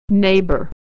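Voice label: "neighbor", American English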